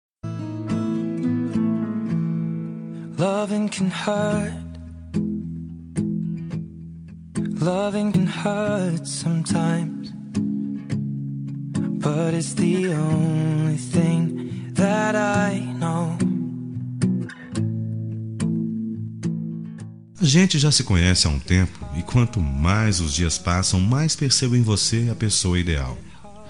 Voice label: PEDIDO DE CASAMENTO M

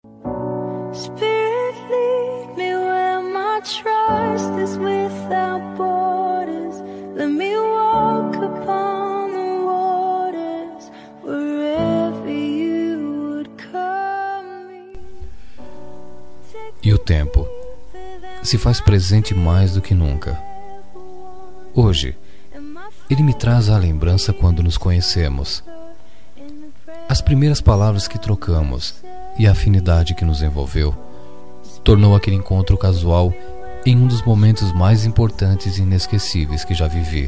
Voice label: dia dos namorados ex masculina; para ex namorada

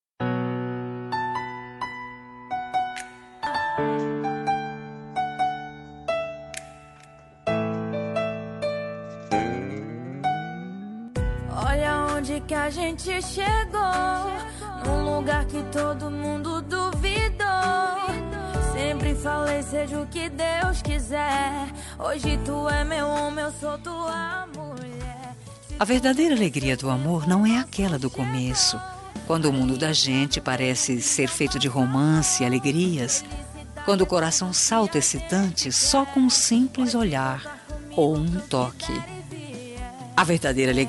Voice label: romântica para marido, Romântica para Marido- Voz Feminina

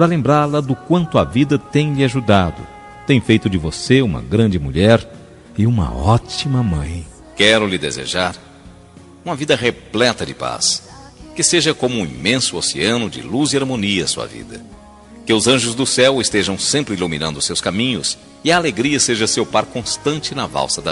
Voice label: amiga masc, voz masculina; dia das maes para amiga

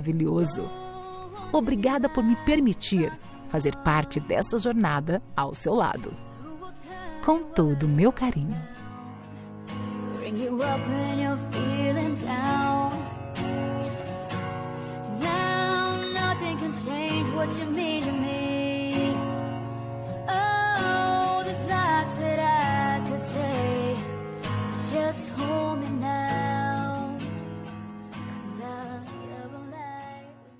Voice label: namorado fem, Dia dos Pais para Namorado – Voz Feminina

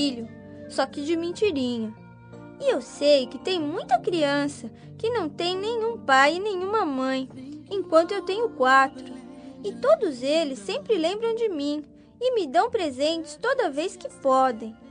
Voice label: infantil padrinho masc, Aniversário Voz Infantil – Padrinho – Voz Masculina; Telemensagens

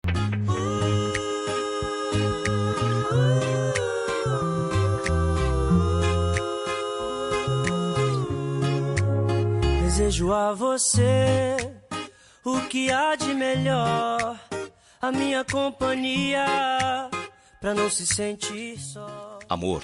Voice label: aniv distant masc; Telemensagem Aniversário Romântico – Distante

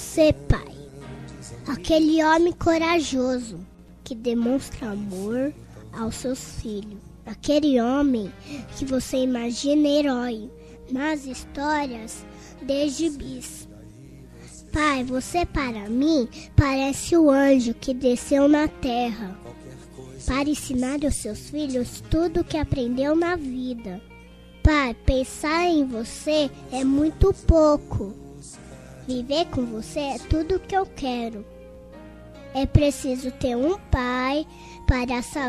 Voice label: dia dos pais voz infantil feminina

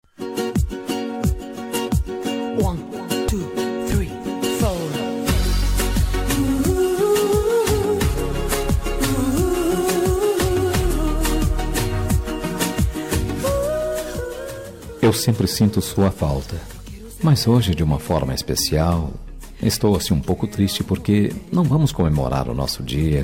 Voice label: dia dos namorados distante, voz masculina; dia dos namorados para namorada distante

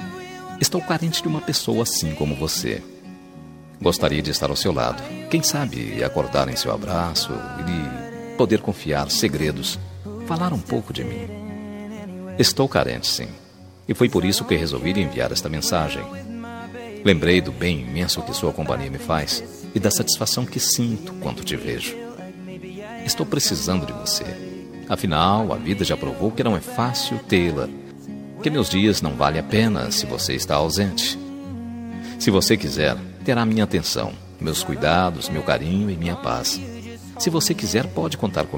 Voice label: masc, Dia dos Namorados Conquista – Voz Masculina

